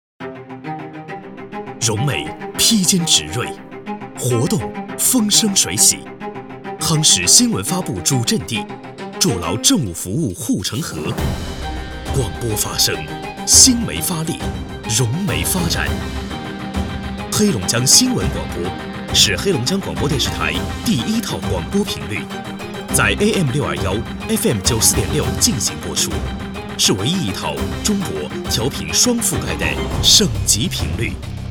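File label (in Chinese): 电台片花新闻广播; 黑龙江新闻广播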